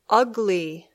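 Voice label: "ugly", English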